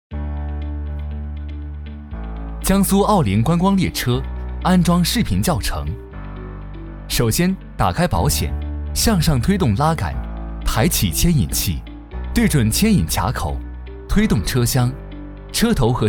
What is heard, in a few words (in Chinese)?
男 解说 步骤讲解 操作简介